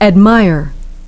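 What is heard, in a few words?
"admire", English pronunciation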